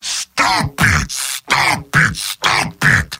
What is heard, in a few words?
heavy jeers; mvm